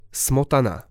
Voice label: "smotana", slovencina